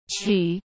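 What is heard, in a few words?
"曲", Mandarin Chinese; hsk; chinese flashcards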